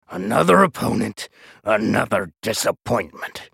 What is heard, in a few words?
taunt, en US; Swain